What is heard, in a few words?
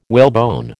"whalebone", American